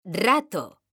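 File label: "rato", Spanish, female; mekanta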